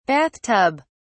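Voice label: "bath tub", British English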